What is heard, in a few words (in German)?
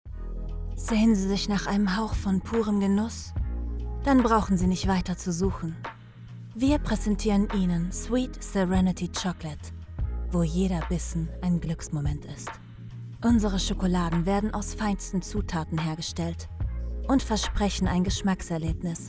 de de f commercial; offstimme